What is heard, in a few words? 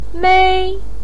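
"mei", Mandarin Chinese first tone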